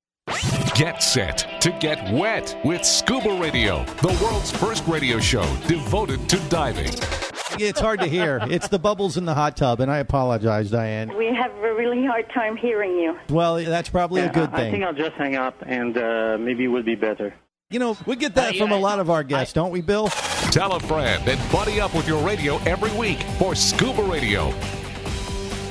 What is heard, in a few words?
Promo